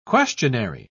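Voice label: "questionary", British English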